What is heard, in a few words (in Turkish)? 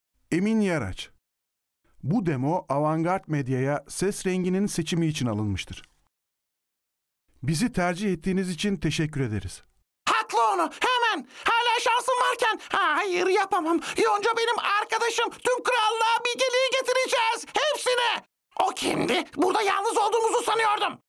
animasyon